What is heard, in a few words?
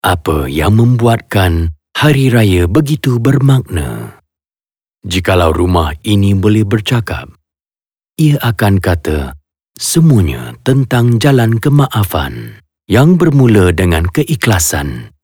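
Bahasa Malaysia Announcer Emotive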